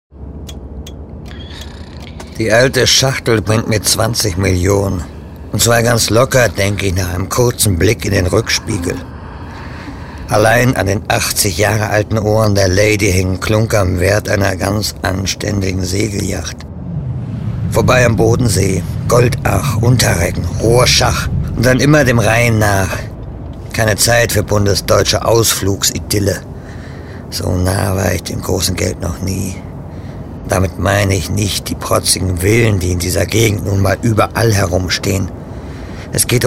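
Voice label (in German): hörspiel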